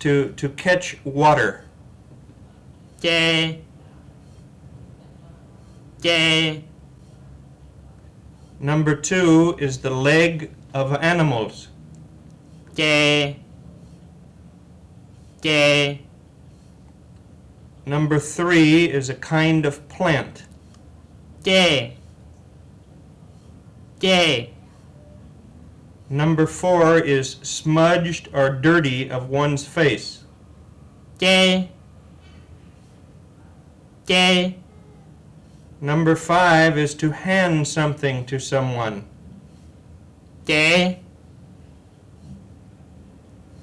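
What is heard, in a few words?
White Miao Tone contrasts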